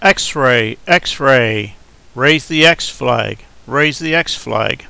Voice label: xray raise flag, male English